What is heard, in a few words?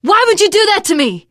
friendly fire, teen girl voice